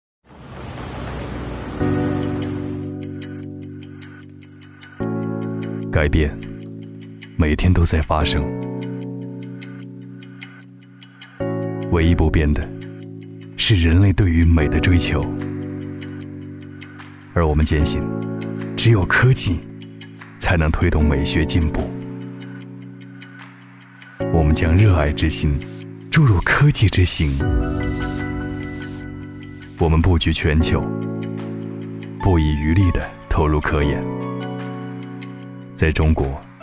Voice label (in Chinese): 男 品牌专题; 广东